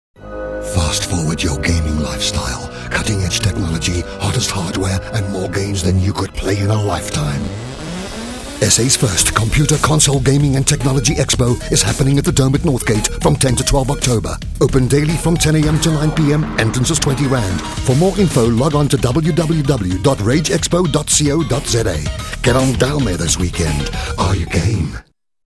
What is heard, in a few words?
rAdio Advert; Highveld; rAge expo 2003